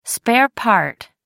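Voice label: "spare part", British English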